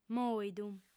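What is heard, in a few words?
"mòidu" Sardinian, female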